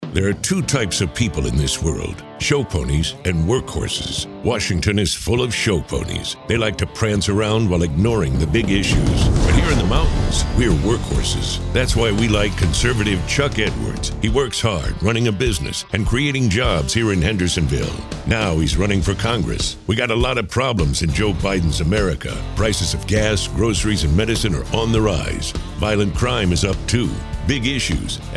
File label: radio; Workhorse